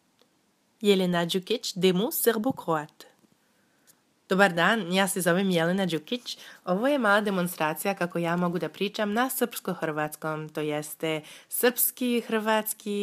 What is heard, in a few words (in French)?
en serbo croate langue maternelle serbe naturel presentation enjouee; energique; amusee